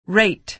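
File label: "rate", American English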